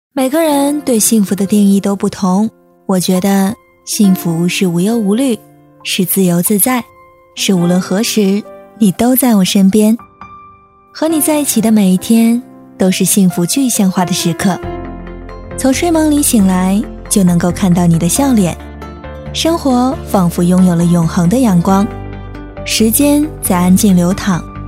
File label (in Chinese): 女, 旁白, 婚礼女视角